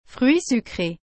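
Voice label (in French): "fruit sucré", French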